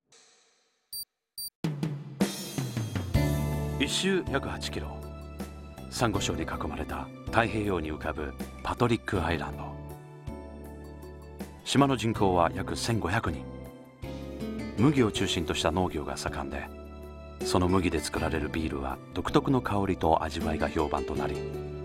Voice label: narration